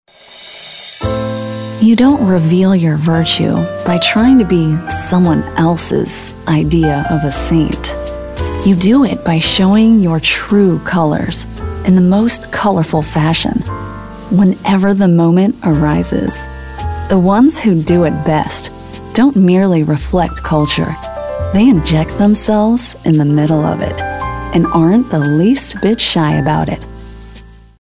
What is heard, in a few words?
Sexy